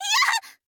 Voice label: sword woman damage

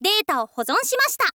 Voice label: "data wo hozon shimashita", girl; voice

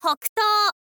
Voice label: "hokutou", info girl

